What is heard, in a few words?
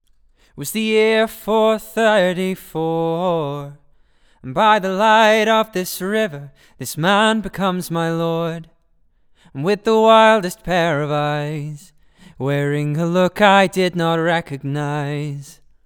Vox AKG414; audient; Vox Normalised